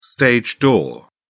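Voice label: "stage door", British English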